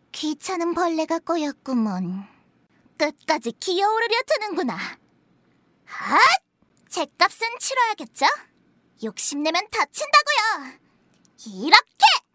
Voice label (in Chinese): 韩语女声 变声线风格